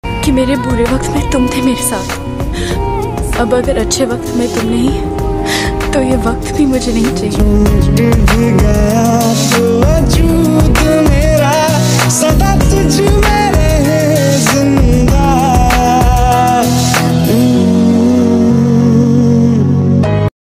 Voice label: dialogue status lofi status; aashiqui2

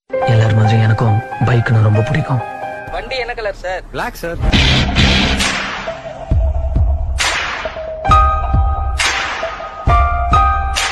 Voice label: bike, dialogue and music; splendor bike; polladhavan

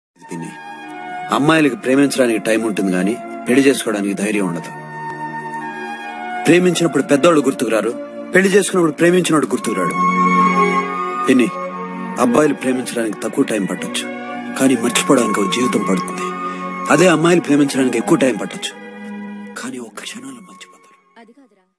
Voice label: telugu inspiration words; Nagarjuna

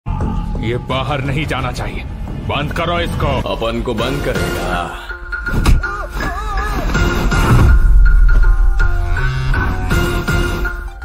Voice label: dialogue ringtone; ismart shankar

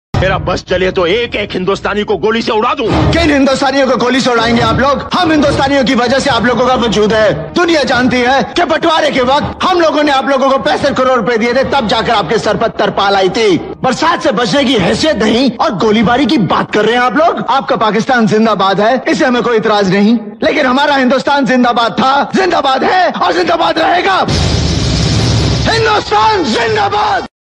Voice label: movie dialogue ringtone; gadar 2; sunny deol

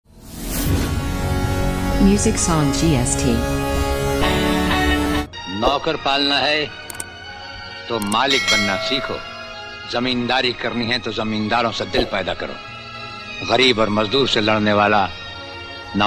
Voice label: dialogue ringtone, attitude dialogue; rajkumar

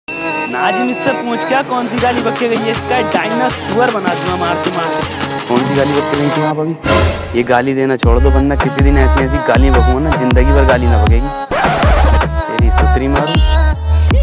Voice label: dialogue ringtone

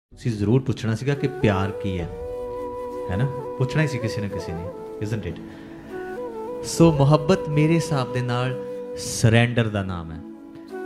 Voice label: punjabi sad shayari

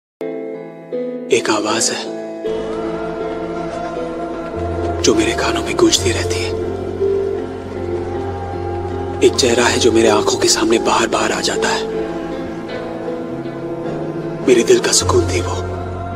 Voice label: dialogue ringtone, love

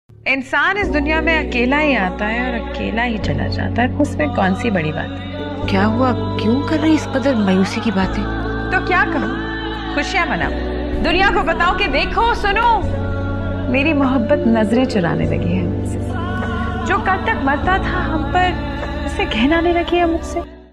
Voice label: sad dialogue

